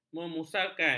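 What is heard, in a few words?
"memusarkan", Malay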